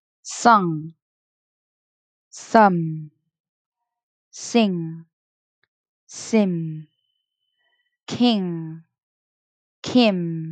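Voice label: Minimal Pairs ng m, pronunciation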